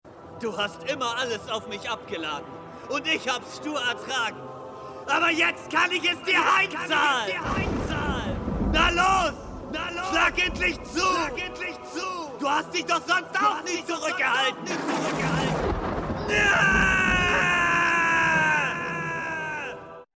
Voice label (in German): Fantasy wuetend traurig dramatisch